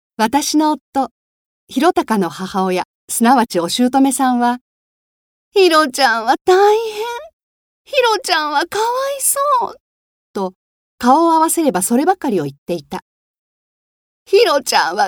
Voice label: 朗読

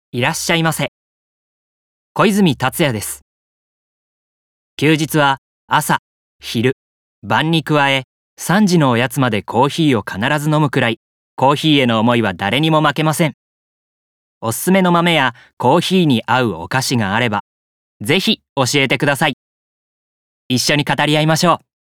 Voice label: フリートーク, 大阪弁

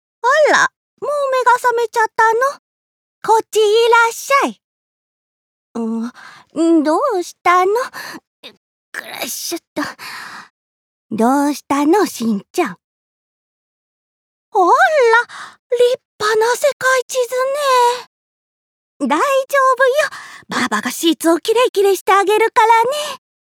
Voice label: おばあさん孫のトラブルを解決

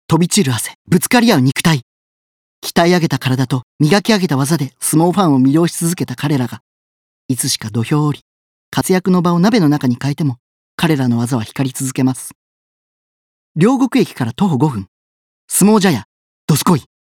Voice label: 飲食店CM

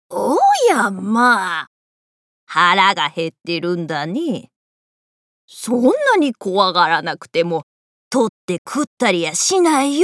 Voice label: 怖そうで優しい老婆

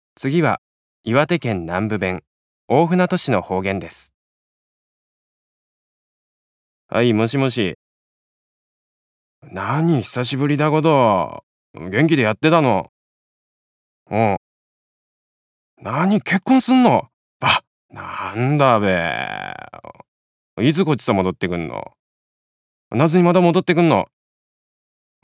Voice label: 方言

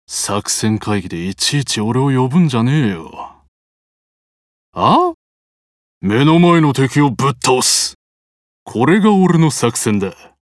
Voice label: ワイルド盗賊40代

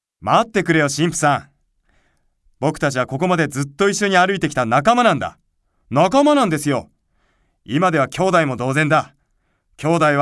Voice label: 青年

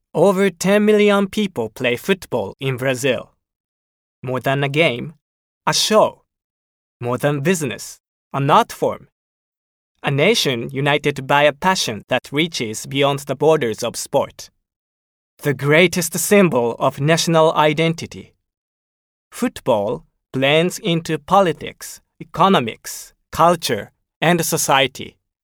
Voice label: 英語

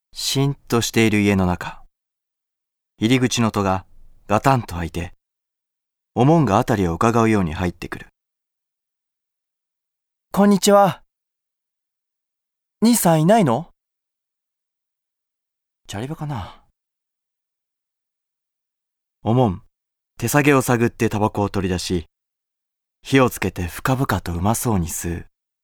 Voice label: 朗読

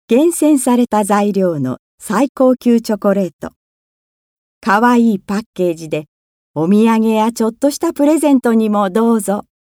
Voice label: チョコCM

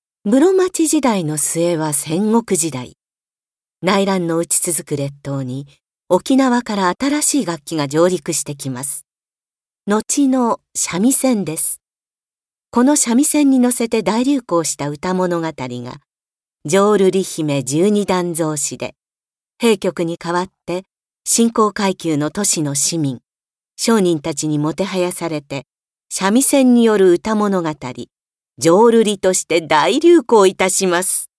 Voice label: 歴史解説

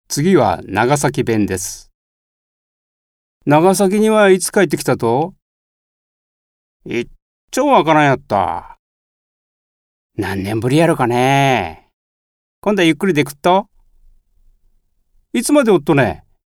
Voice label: 方言, 長崎弁

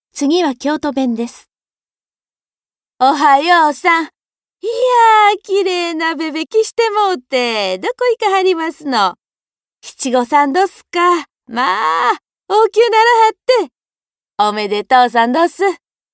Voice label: 方言

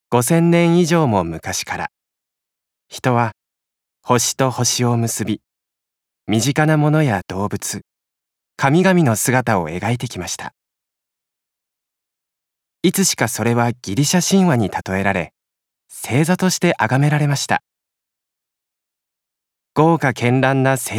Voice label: 教養番組ナレ

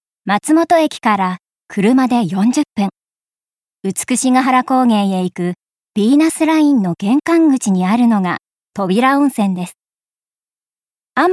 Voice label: 街歩きナレ

